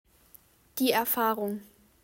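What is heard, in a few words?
"die Erfahrung", German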